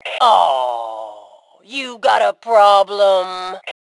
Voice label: Taunt Aww, Female; T2 Female 04

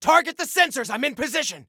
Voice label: Target Sensors, Soldier Male

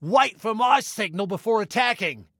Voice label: Attack Wait, Male

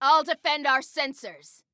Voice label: Self Defend Sensors, Female

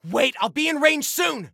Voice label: Target Wait, Soldier Male

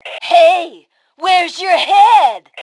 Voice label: Taunt Learn, Female

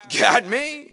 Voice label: Dont Know, male; T2 Male 03

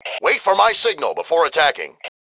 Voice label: Attack Attack Wait, Male; T2 Male 04

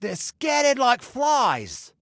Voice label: Enemy Disarray, Male

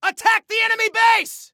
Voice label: Attack Base, Soldier Male; Soldier Male 01

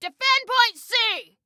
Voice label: DEFEND, Female

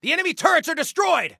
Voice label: Enemy Turrets, Soldier Male

